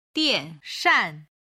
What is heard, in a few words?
"dian shan", Chinese